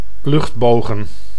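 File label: "luchtbogen", Dutch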